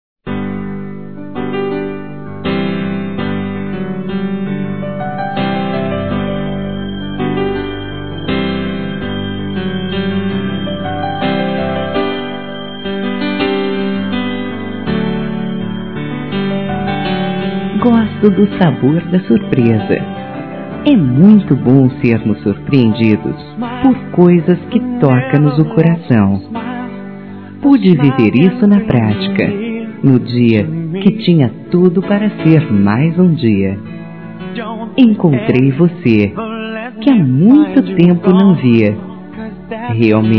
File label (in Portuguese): Reencontro Feminino; Dia Dos namorados; Telemensagens 2017